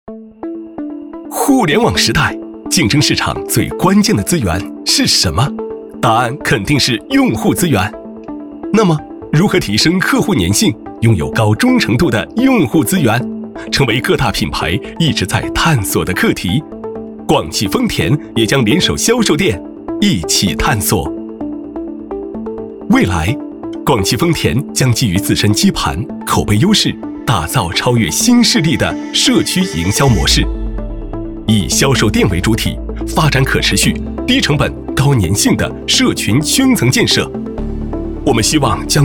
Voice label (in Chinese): 男 年轻科技感; 广汽丰田